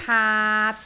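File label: "taat3", Cantonese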